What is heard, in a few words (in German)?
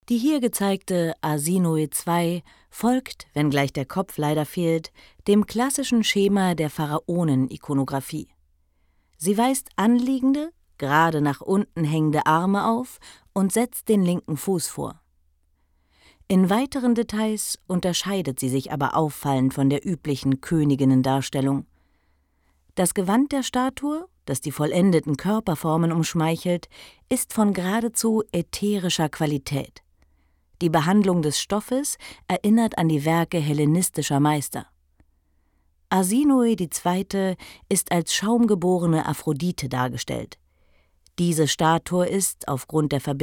Audioguide